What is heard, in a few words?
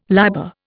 "liber", Indian